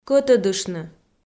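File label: "кӧтӧдыштны" Komi, female; kpv audio dict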